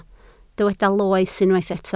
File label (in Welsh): "loes", Welsh